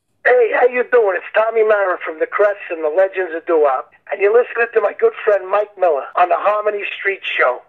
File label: promo